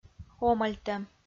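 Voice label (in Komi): "омӧльтӧм", Komi, female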